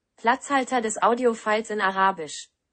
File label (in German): arabisch